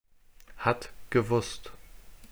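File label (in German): "hat gewusst", German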